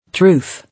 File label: "Truth", English